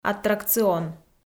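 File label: "аттракцион", Komi-Zyrian, female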